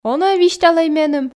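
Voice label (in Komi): он-ӧ висьталӧй меным, Komi, female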